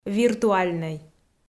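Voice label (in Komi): "виртуальнӧй", Komi, female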